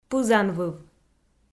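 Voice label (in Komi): "пызанвыв", Komi, female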